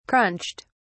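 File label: "crunched", British English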